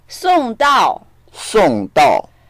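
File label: "song4dao4", Mandarin Chinese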